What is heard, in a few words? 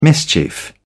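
"mischief", British English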